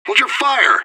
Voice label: radio bot under fire friendly; fbihrt

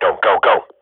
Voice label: radio bot go, swat; Counter Strike Model Pack; CS:GO

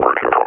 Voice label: announce attack cover, officer; combine; Half Life Alyx; Half Life Model Pack

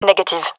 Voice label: negative, fem; vo; gendarmerie fem epic

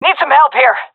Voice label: request help, vo fem; agents; Source Model Packs; Counter Strike Model Pack; CS2

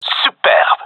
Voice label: compliment, gendarmerie male

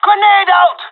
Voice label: grenade, pirate; vo; Counter Strike Model Pack; CS:GO

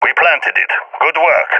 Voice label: hear bomb, balkan; balkan epic

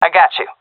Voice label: covering friend, fem; Source Model Packs; Counter Strike Model Pack; CS2

